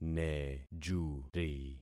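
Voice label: "nejuri", concatenated syllables; Affixing experiment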